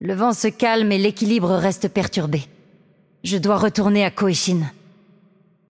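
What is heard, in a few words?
voice over, French; Game Outro; bloom minigame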